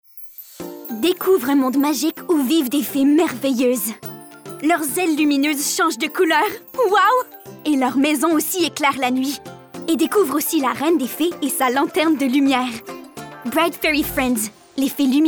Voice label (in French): demo pub